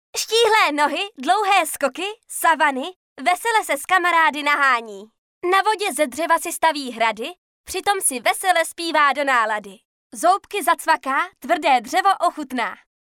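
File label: female Czech drama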